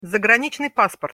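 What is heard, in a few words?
"zagranicnyj pasport", Russian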